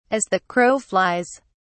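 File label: "as the crow flies", British English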